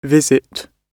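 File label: "visit", British English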